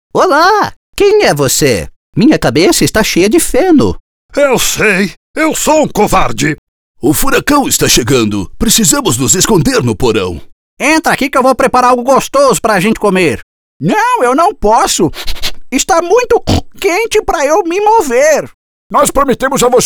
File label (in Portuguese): VOZES DUBLAGEM